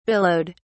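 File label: "billowed", English, uk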